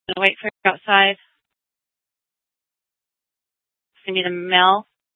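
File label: police dispatch, scanner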